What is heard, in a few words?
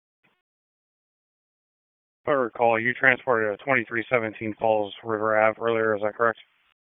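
Police District Dispatch; Raleigh; Northeast; p25; wake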